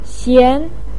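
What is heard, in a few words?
"xian", Mandarin pinyin, second tone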